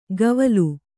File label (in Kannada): "ಕವಲು", Kannada